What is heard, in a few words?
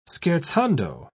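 "scherzando", British English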